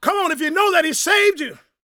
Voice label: PREACHIN, Gospel PREACHER; Spectrasonics; Vocal Planet

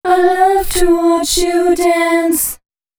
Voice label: female a 140, short hooks, Dance Voice

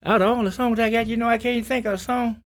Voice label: SPOKEN, Blues Soul, TALK

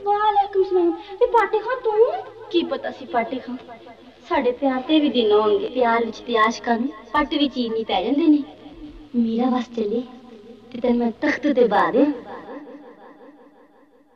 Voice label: IND GIRL TLK, ETHNIC; AMG; Global Trance Mission VOL-2

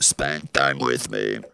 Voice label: SPEND TIME, Funky Vocals; USB Soundscan vol.18; AKAI

